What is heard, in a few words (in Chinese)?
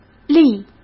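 "利", Mandarin Chinese; hsk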